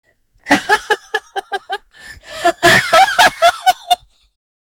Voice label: brittish conversation